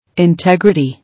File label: "Integrity", English